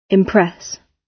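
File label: "impress", British English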